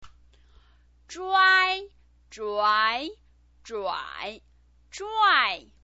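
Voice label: "zhuai", Mandarin Chinese pinyin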